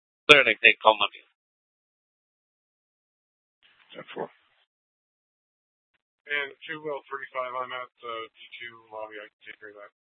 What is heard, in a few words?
Police South Dispatch; Regional Wireless Cooperative (RWC) - Simulcast H- Scottsdale